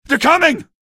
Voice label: evac enemies, male; video games; npc; source engine; episodic; episode 1